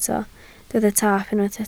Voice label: "taap", Welsh